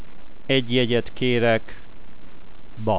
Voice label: ticket to, hungarian

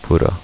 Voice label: "French", Korean

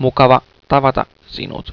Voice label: NICE TO ME, Finnish; Mukava tavata sinut.

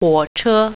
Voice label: "train", Mandarin

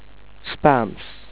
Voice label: "spanish", Dutch

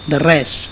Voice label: "welcome", Catalan

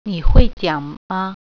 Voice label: "can you speak", Mandarin

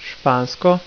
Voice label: "sxpansko", Slovenian

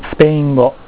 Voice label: "Spanish", Japanese

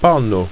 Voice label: "pano", Ido

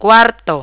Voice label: "room", Tagalog; word of day

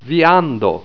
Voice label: "viando", esperanto

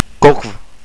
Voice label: coffee, estonian

